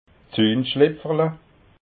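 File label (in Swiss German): "zunschlipferla", Alsatian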